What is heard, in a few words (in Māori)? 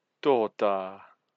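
"tota", Māori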